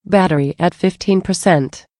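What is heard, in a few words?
Battery, female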